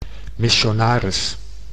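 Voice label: "missionaris", Dutch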